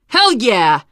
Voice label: nice job, teengirl